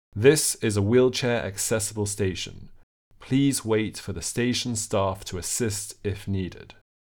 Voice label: This is a wheelchair accessible station, received pronunciation